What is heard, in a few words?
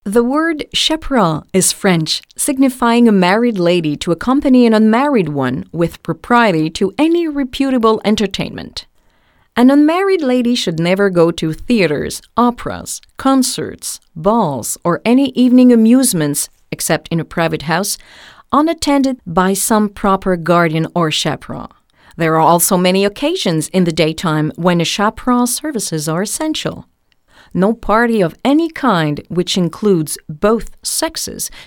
English